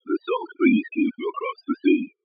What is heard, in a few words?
sinewave speech